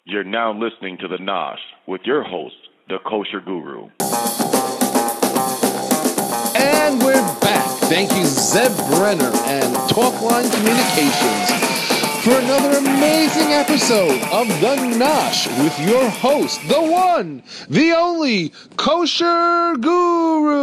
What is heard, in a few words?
Voice over